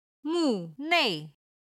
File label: "mu4 nei4", Chinese